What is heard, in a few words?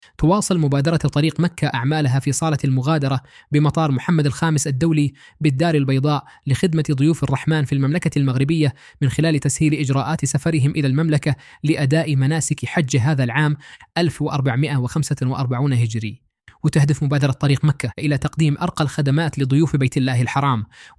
arabic